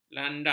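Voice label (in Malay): "landak", Malay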